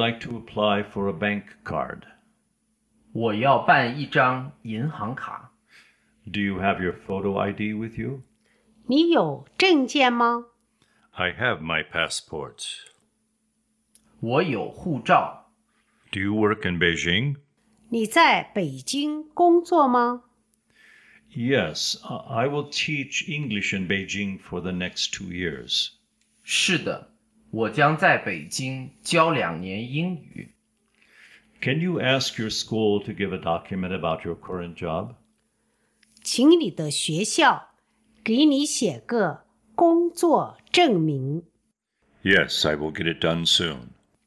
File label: Dialog